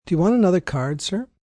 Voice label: Another Card, Casino, gambling, male: 'Do you want another card sir?', (human voice speaking)